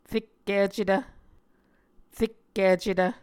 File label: "rekkicetv", Muscogee; Mvskoke Words with Repeated Consonants; Martin, Jack B., and Margaret McKane Mauldin. 2023. A Dictionary of Creek/Muskogee